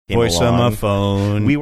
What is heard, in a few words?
drawn out "voiceamaphone"